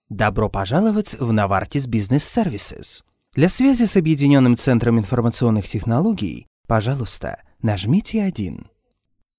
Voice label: russian ivr; Locuciones en ruso (nativo)